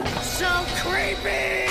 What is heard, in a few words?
so creepy